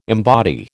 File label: "embody", American